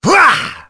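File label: Vox Attack, voices heroes English; kingsraid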